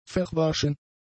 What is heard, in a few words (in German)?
"verwaschen", German; adjectives